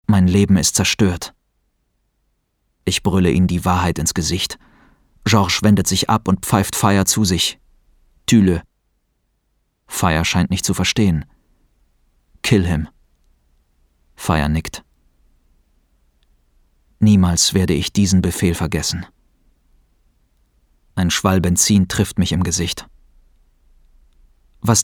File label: german audiobooks